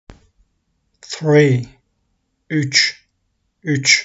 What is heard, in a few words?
three 3, Turkish; the talking turkish phrasebook